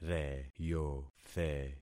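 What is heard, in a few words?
"reyothe", concatenated syllables; Affixing experiment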